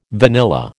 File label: "vanilla", American